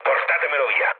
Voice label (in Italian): aircraft damaged, mood low, speech it